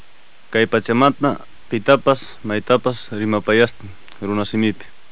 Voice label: chichewa; voyager